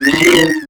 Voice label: Zombie